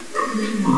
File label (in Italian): vocalizzo